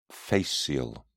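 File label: "fascial", British English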